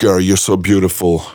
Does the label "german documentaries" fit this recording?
No